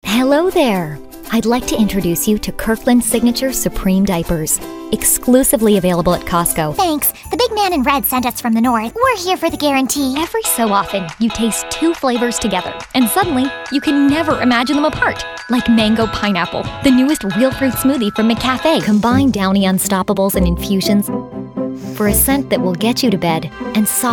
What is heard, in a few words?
women commercial; Energetic, young, warm, quirky, French, fast talker.